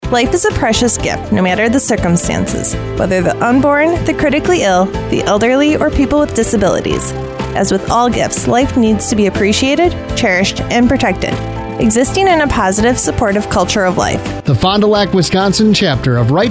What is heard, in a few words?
prayer service radio ad